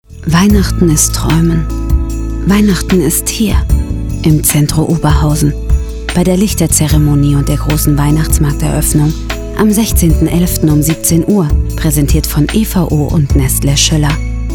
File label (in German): werbung funk; centro oberhausen; rfgh musikproduktion